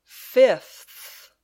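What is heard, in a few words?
"fifth", English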